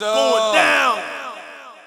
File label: "GOIN DOWN", Vox